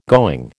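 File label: "going", American English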